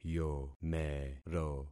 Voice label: "yomero", concat syllables; Affixing experiment